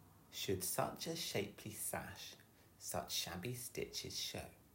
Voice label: english sash slow; phon lab